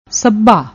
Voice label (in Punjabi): "subha", Punjabi; ਸੱਭਾ